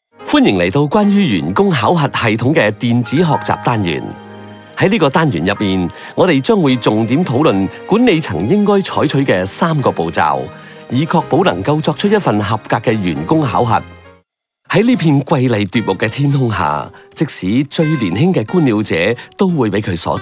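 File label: Cantonese Narration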